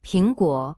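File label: "apple", Mandarin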